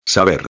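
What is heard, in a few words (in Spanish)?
"saber", Spanish; futuro imperfecto de indicativo; Saber Sabr; Escuta "Saber"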